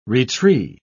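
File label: "retree", British English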